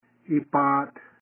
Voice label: Alive (Plural Form) Iipaatt They Are Alive And Moving, Kumeyaay